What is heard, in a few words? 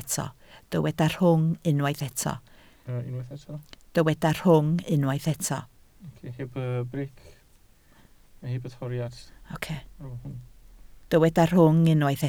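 "rhwng" Welsh, spoken word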